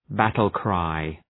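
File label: "battle cry", English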